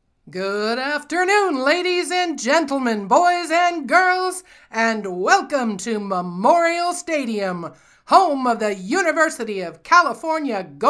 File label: Sports Announcer